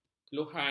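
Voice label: "keluhan", Malay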